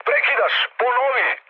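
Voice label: Serbian chat; speech; War Thunder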